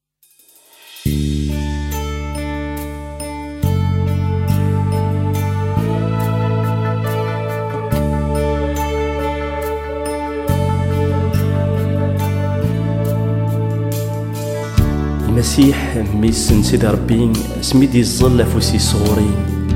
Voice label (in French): temoignage arabe